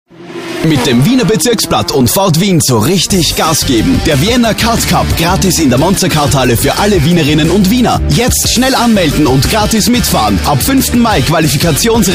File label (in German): austria male werbung sprecher